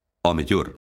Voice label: "ao mellor", lingua galega; locución adverbial; ɔmeˈʎoɾ

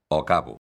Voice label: "ao cabo", lingua galega; locución adverbial; ɔˈkaβo̝

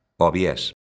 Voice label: "ao biés", lingua galega; locución adverbial; ɔˈβjɛs

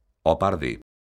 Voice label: "ao par de", lingua galega; locución prepositiva; ɔˈpaɾðe̝

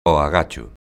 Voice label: "ao agacho", lingua galega; locución adverbial; ɔaˈɣat͡ʃo̝

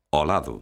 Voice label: "ao lado", lingua galega; locución adverbial; ɔˈlaðo̝

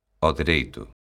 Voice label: "ao dereito", lingua galega; locución adverbial; ɔðeˈɾejto̝

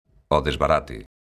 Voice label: "ao desbarate", lingua galega; locución adverbial; ɔðesβaˈɾate̝

